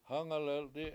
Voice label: "south", Washo; hAngaleldi7

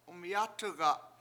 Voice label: "umyAt1ga7", Washo; you killed it

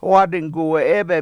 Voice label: "Today", Washo; wAAdinguwe7EEbe7

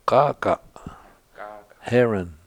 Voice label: "k7AAk7a7", washo; Heron

